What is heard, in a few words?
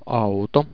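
"auto", Finnish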